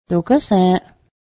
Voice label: it is true isnt it, Cayuga; dogęhs ę́:ˀ